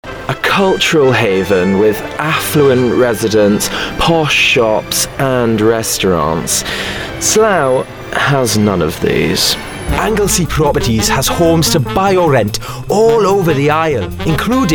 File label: Accent reel